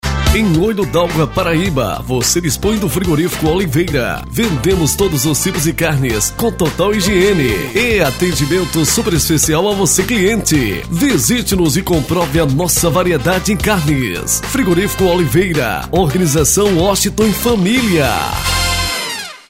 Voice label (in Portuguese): comercial; FRIGORIFICO; FRIGORIFICO OLIVEIRA